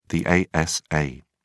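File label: "asa", British English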